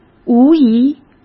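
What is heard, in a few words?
"无疑", Mandarin Chinese; hsk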